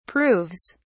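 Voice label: "proves", British English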